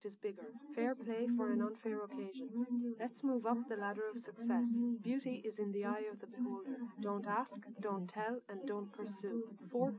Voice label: test female liverec 130ms 5cm sim; SiSEC08; SiSEC underdetermined

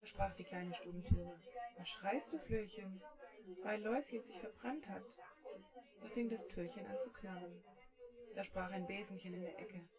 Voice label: female synthconv

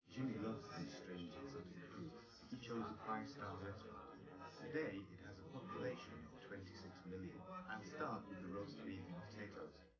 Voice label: male4 liverec 250ms 1m sim

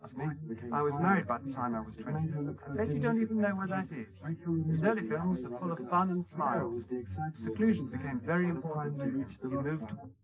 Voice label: male, 130ms, 4cm

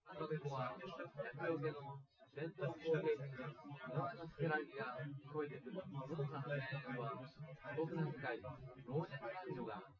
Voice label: male synth conv 250ms 5cm sim; SiSEC08; SiSEC underdetermined

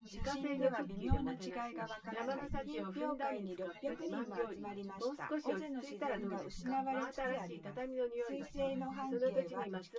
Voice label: female synthconv 130ms 5cm src; SiSEC08; SiSEC underdetermined

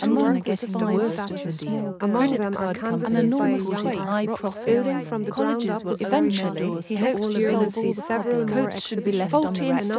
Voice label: female mix; SiSEC10; underdetermined

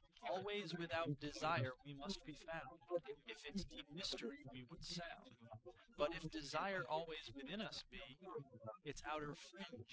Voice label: male, inst

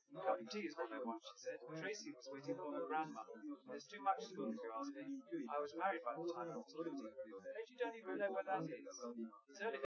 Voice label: male, live rec; SiSEC08; SiSEC underdetermined

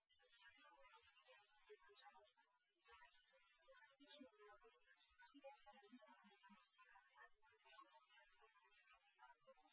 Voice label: female liverec 130ms 5cm; SiSEC08; SiSEC underdetermined

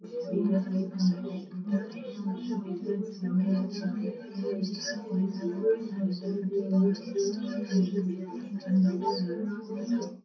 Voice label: female4; SiSEC10; underdetermined

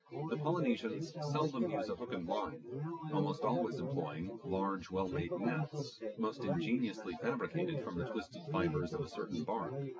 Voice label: male; SiSEC08; SiSEC underdetermined

